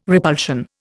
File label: "repulsion", Indian